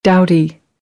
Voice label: "doughty", American English

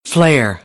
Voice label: "flair", American; AmericanBritish

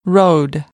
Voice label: "rode", American English; oʊ - əʊ

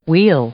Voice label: "wheel", English; noun; Ingles; i-ɪ

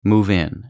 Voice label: "move in", English; phrasal verb

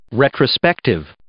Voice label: "retrospective", English

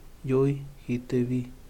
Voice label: doctor médico, Yaqui

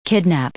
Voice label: "kidnap" verb

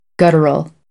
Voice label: "guttural", American; English; ɚ - əɹ

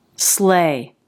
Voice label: "slay" sleɪ, English

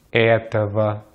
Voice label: "этого", Russian; Consonantes en ruso; easypronunciation

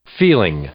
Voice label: "feeling", English; noun

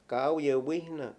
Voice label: "negar", Mayo; Habla y lenguaje

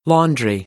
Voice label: "laundry", American; AmericanBritish

